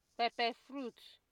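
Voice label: "pepper fruit", Nigerian Pidgin, female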